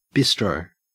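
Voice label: "bistro", Australian English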